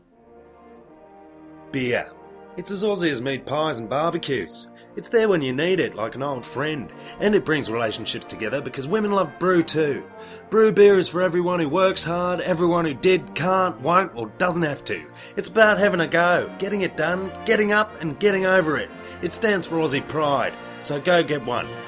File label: Commercial Voicereel Compilation